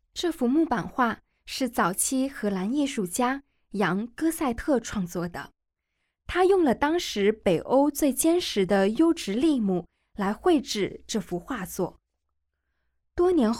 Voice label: Mandarin, audio guide; g9-botticelli-to-van-gogh

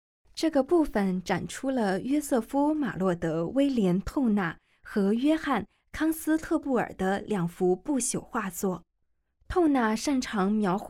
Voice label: Mandarin, audio guide; g9-botticelli-to-van-gogh

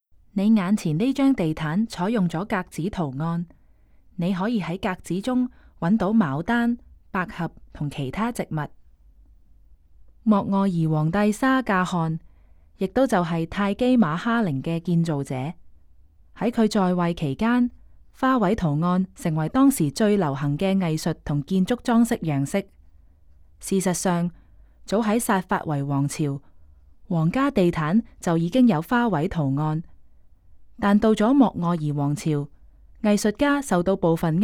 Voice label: Cantonese, audio guide; 花卉格子地毯; 拉合爾或克什米爾; 17世紀中葉

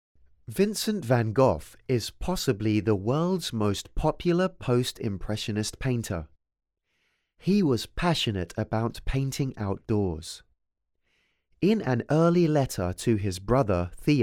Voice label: English, audio guide

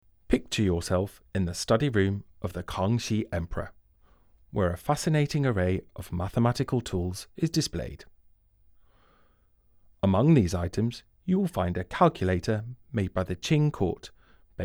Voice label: English, audio guide; versailles

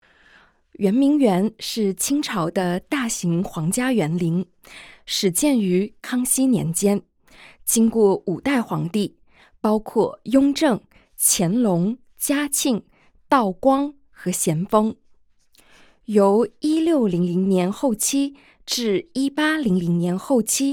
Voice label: Mandarin; yuan ming yuan

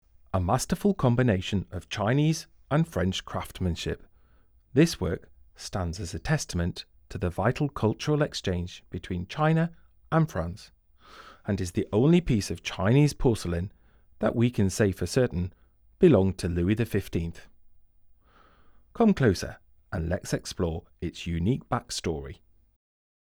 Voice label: English, audio guide

